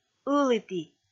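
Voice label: "ыліті", Komi, female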